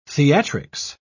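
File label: "theatrics", British English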